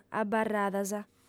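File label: "abarradas", Sardinian, female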